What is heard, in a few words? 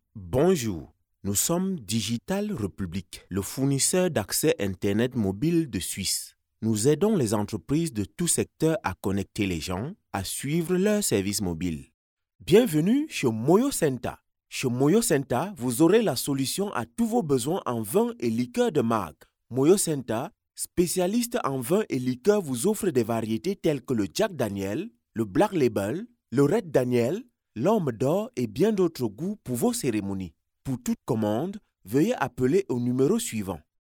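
African French, male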